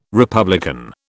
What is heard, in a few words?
"republican", British